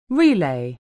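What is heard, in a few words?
"relay", English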